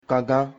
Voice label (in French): "aigle", Fon